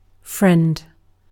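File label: "friend", British English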